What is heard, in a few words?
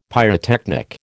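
"pyrotechnic", American English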